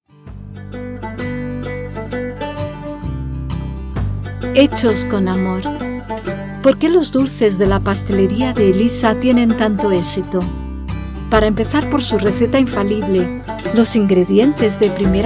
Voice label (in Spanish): Anuncio con amor